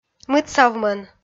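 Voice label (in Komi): "мытсавмӧн", Komi, female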